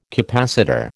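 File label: "capacitor", American English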